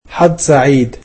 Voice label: "Good luck", egyptian